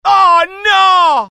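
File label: fear grenade, IT; mohaa; dialogue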